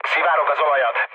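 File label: Hungarian damaged oil mood low, speech; War Thunder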